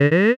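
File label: voice eh male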